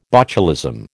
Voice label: "botulism", American

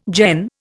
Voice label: "Jain", Indian

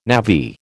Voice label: "navvy", American

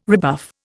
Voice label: "rebuff", Indian

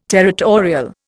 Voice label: "territorial", Indian

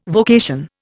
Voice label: "vocation", Indian

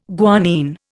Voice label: "guanine", Indian

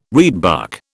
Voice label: "reedbuck", British

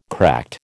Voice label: "cracked", American

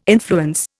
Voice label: "influence", Indian

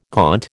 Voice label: "gaunt", American

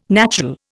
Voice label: "natural", Indian

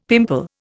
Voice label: "pimple", Indian; Dictionary

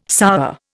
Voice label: "sour", Indian

